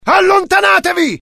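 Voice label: fear grenade, IT; mohaa; dialogue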